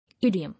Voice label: "Idiom", English